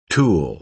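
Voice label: "tool", American English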